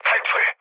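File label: Korean bailout mood low, speech; War Thunder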